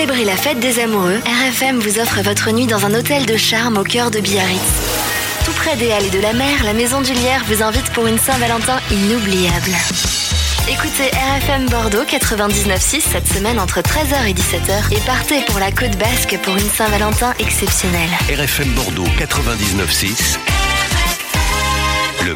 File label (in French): Demo VO Voix F